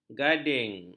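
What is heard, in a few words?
"gading", Malay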